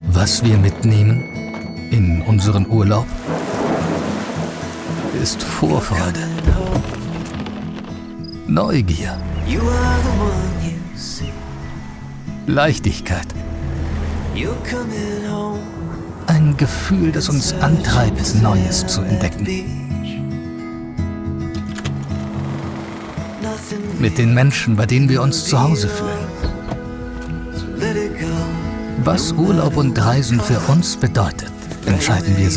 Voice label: image fendt emotionen; Muttersprache Deutsch

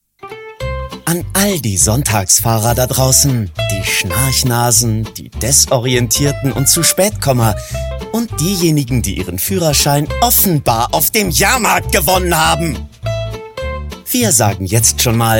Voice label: werbung auto arrogant; Muttersprache Deutsch

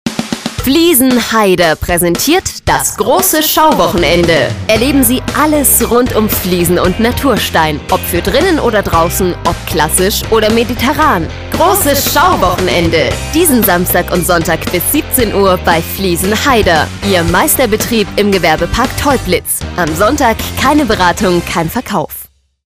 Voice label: werbespot fliesen; haider

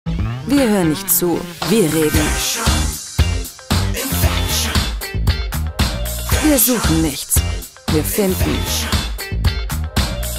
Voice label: tv spot fresh; deichmann

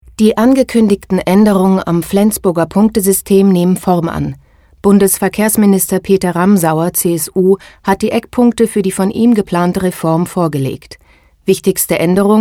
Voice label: nachrichten

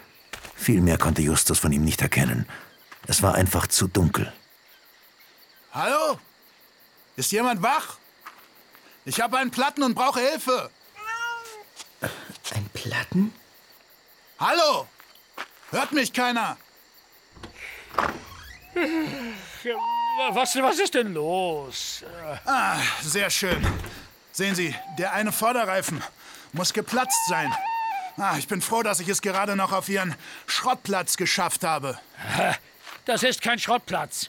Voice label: hoerspiel; drei fragezeichen

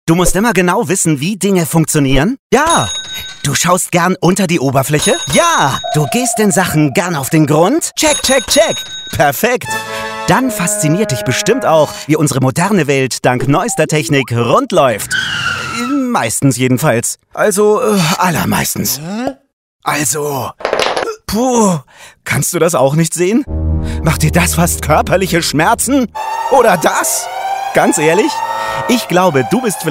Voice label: Imagefilm flott dynamisch frech jugendlich werblich Ausbildung Elektroniker

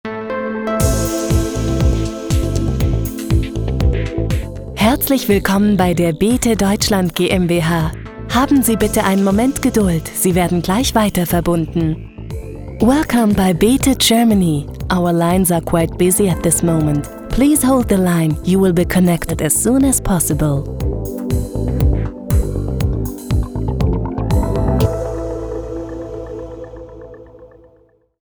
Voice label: Ansage; avm; bete gmbh

